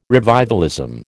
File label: "revivalism", American